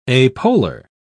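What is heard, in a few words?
"apolar", British English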